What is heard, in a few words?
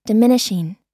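"diminishing", American English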